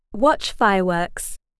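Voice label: "watch fireworks", English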